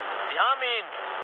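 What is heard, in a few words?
German aircraft destroyed mood med, speech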